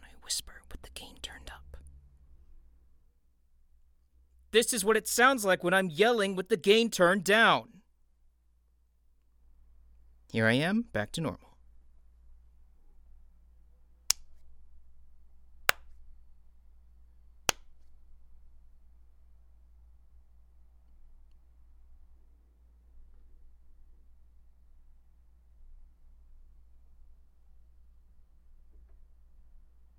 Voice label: RAW STUDIO SAMPLE